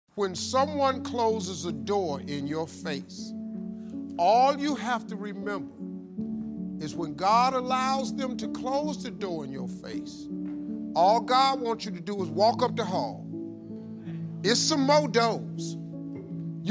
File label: Inspirational Speech; When Someone Closes A Door In Your Face Steve Harvey